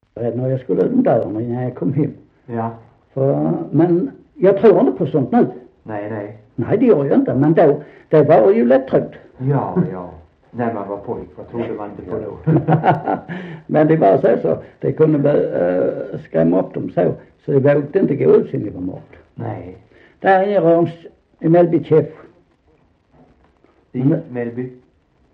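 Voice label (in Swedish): Sankt Olof Skåne, Svenska sagor dialekter; Folkminnen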